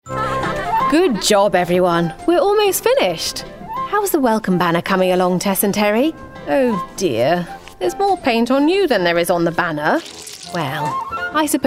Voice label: Animation Teacher RP